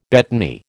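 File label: "betony", American English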